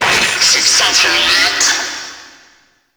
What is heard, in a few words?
friendly hack vocal; Warframe; Entrati; Necramech Hack